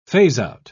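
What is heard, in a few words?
"phase-out", British English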